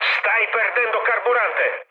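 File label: Italian tank damaged mood med, speech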